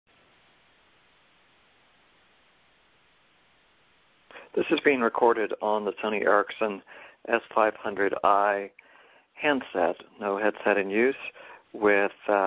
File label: Sony Ericsson S500i inside, cell phone headsets